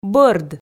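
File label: "бӧрд", Komi, female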